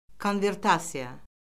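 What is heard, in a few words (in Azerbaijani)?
"konvertasiya", Azerbaijani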